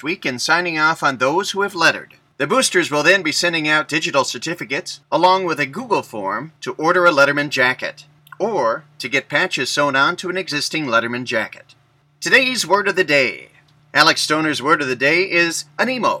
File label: Announcements